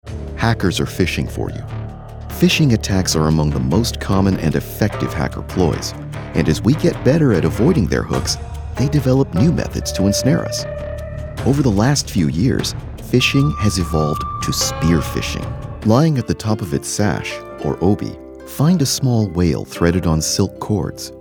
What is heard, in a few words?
Narration